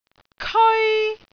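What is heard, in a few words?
"keoi1", Cantonese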